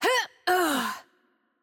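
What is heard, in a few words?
Hungarian hurt heavy voice; bloom minigame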